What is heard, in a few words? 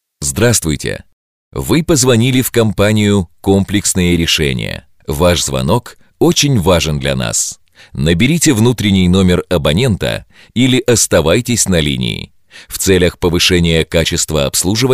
IVR